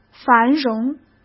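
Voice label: "繁荣", Mandarin Chinese; hsk; chinese-flashcards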